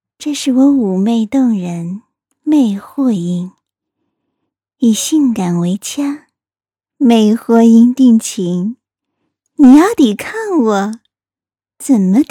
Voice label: 魅惑音

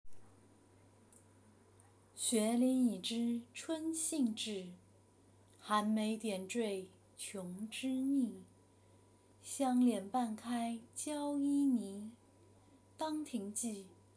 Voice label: 渔家傲朗诵

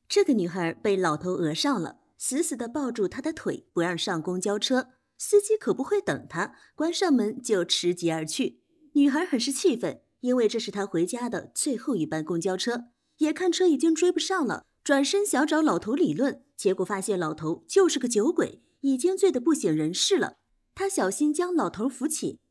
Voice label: 短视频解说

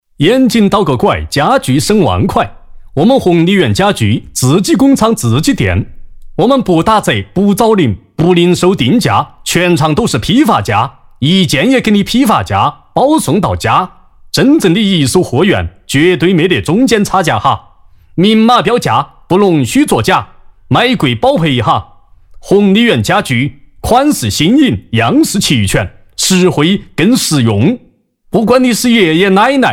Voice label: 方言川话; 弘立缘家具

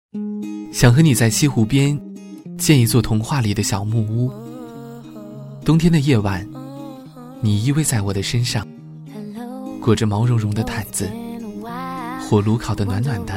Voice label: 小清新 南方 男 杭州 台州 宁波

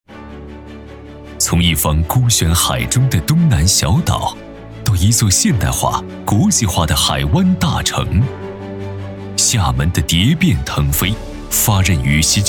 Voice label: 高速公路建设开发有限公司宣传片; 厦门市

